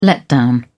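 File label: "letdown", British English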